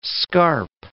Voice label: "scarp", English

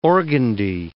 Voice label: "organdie", English word

